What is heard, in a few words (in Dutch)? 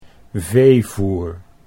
"veevoer", Dutch